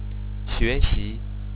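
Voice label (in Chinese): "xuexi", Chinese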